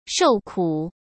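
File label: "受苦", Mandarin Chinese; hsk; chinese-flashcards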